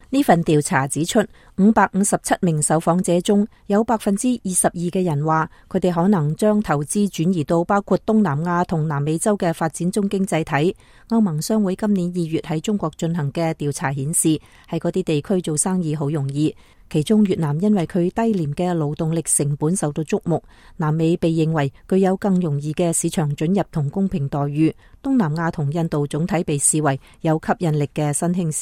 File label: cantonese